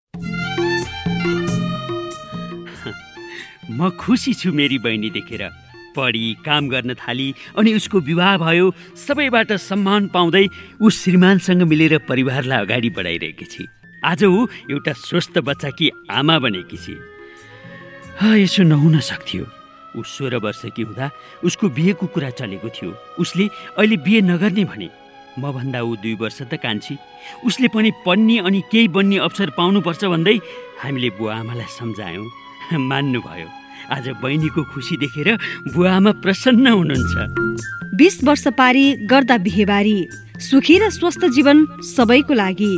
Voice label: Nepali; Delay Marriage